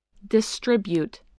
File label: "distribute", spelling